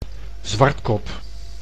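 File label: "zwartkop", Dutch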